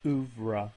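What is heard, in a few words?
"oeuvre", American English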